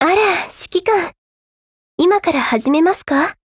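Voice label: HELLO Japanese, Voice; GF Alarm; G36C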